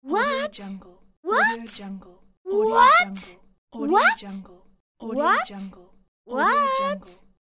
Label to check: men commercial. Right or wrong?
Wrong